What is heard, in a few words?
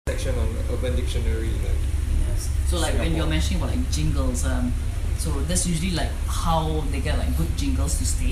Singlish